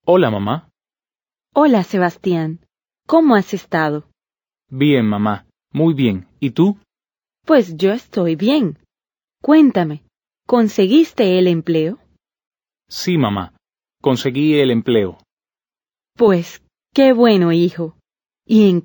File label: Dialogue, Spanish